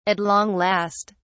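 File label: "at long last", British English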